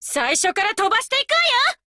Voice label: warcry

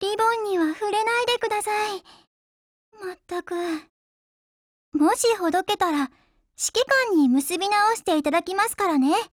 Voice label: DIALOGUE JP

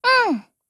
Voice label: AGREE JP; MicroUZI

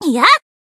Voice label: Battle Shout; Hanako

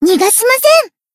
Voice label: Battle Shout; Kokona

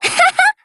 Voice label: MOOD JP

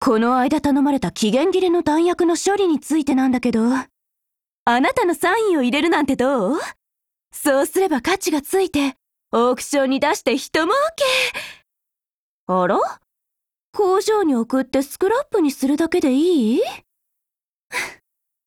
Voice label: DIALOGUE JP

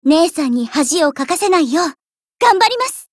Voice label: warcry

